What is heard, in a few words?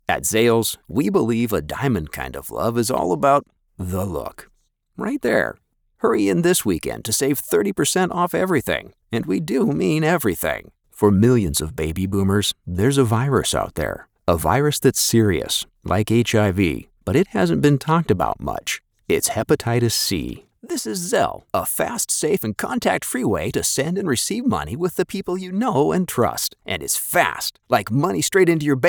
Commercial